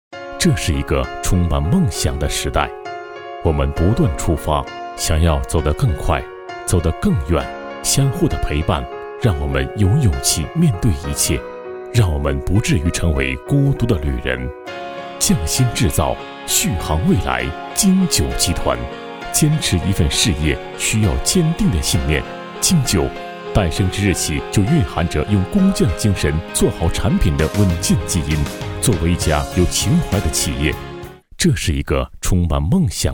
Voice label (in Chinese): 男 浑厚感情; 京九集团